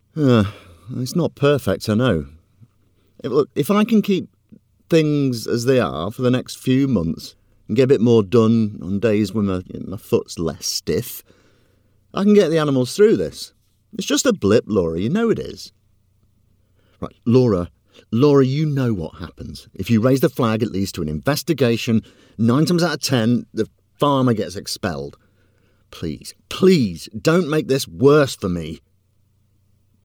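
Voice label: voiceover character audio drama, British character voice over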